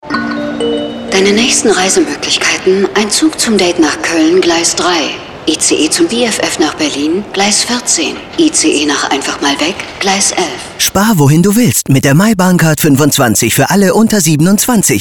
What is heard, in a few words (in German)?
Radio; DB; Reisemöglichkeiten; oTinder